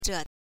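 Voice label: "zhe", chinese; BCT Standard Course 2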